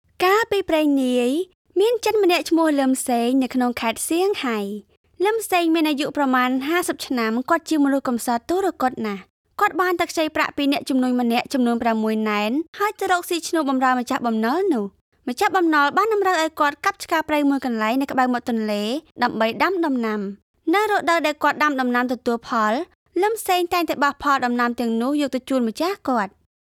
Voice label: E Learning; voiceover-cambodia